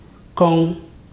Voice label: "cough", Ibibio